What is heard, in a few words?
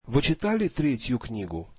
russian did you read the third book